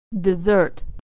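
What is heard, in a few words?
"to desert", American English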